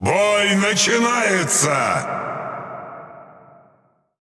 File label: Ru Speech Lets Battle; World Of Warships